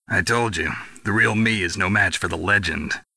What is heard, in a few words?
cheer, voice commands; svencoop; Snake